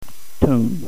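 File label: tone 6, Cantonese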